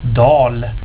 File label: "dal", swedish